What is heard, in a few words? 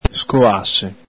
"scoase", Veneto